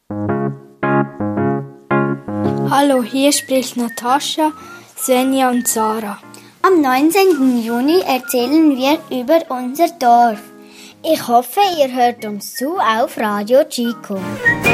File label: Jingle; Hellikon